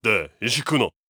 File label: angry